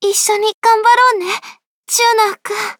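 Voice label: start, Japanese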